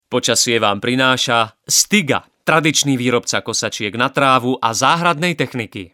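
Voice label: Male Slovak commercial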